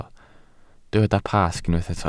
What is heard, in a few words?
"Pasg", Welsh